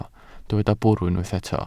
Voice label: "bwrw", Welsh